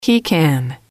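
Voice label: "he can", English, female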